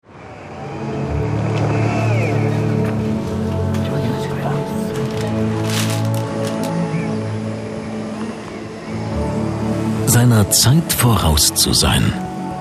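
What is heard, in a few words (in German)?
Kommentar, Freundlich; Kuka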